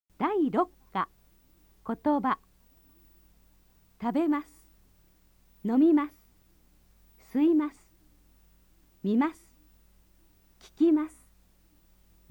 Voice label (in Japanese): nihongo vokabeln